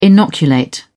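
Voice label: "inoculate", British English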